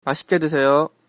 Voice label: bon appetit, korean

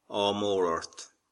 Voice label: good luck, irish

